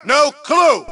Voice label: Dont Know, male; T2 Male 05